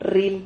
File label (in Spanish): "rril", Silabas